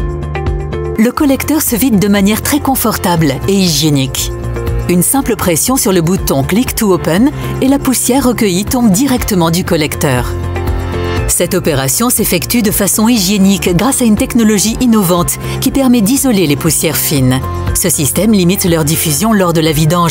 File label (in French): INSTITUTIONNEL ASPIRATEUR; MIELE